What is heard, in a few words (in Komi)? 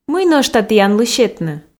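мый нӧшта тіянлы сетны, Komi, female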